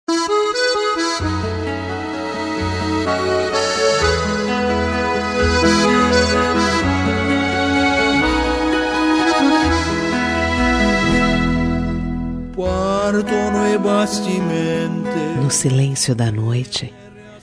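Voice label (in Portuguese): Rom desejos, feminino; Telemensagens; Românticas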